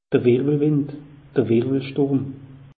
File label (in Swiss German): "wirwelwind", alsace; meteo